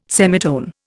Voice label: "semitone", Indian